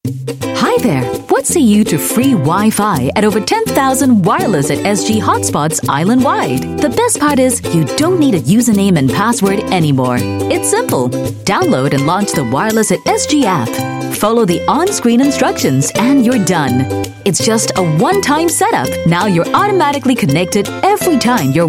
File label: Asian English TVC; WirelessSG